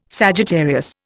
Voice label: "Sagittarius", Indian

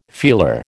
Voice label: "feeler", American

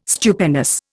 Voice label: "Stupendous", Indian

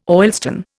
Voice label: "oilstone", Indian

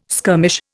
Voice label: "skirmish", Indian

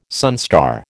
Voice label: "sunstar", American

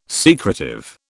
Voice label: "secretive", British

